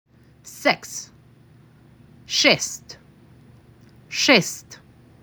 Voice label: MAC 6, macedonian phrasebook; the talking macedonian phrasebook